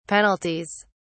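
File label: "penalties", English, British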